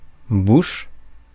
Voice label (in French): "bouche", francais